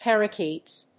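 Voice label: "parakeets", English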